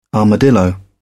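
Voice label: "armadillo", British English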